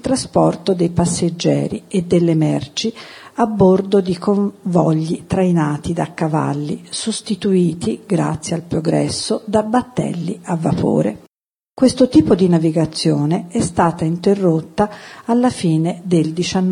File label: NAVIGATION CANAL, audio guide; ITAL